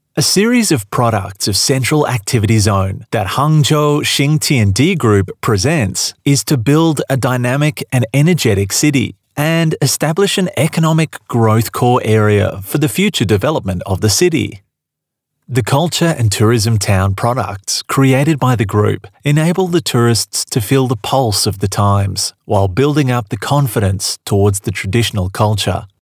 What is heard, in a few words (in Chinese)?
外籍男 城市建设